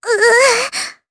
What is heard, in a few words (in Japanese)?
Damage, jp; kingsraid